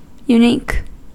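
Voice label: "unique", American English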